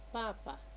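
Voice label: "papa", Nigerian Pidgin, female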